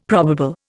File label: "probable", Indian; Dictionary